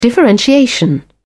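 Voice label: "differentiation", British English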